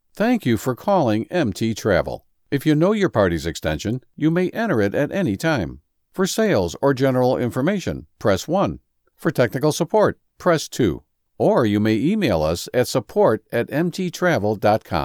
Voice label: On Hold